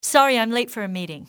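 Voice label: sorry, english female; XBOX; civ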